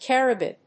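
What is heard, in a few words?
"carabid", English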